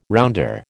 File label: "rounder", American